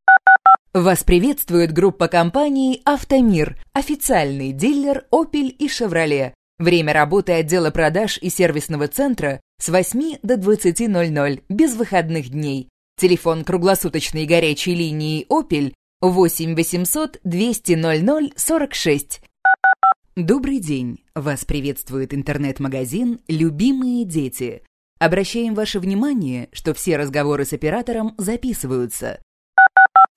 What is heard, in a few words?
woman